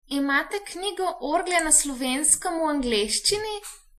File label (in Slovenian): pipe organs, slovenian